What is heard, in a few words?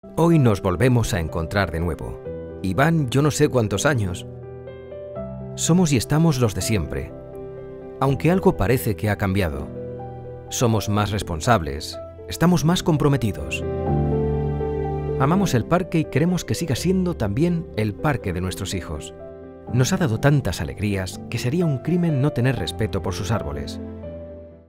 spanish narration